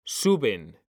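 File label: "suben", Spanish, male; mekanta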